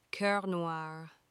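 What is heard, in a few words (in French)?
"Coeur Noir", French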